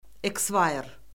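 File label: "eskvayr", Azerbaijani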